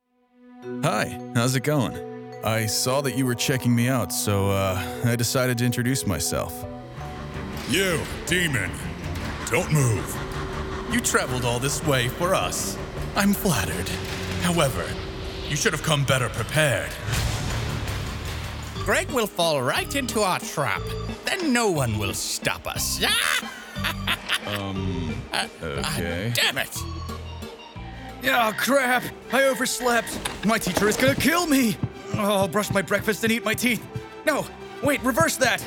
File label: Animation